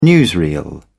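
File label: "newsreel", British English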